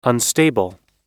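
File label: "unstable", US English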